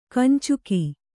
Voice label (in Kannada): "ಕಂಚುಕ", Kannada